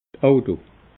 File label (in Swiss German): "auto", alsace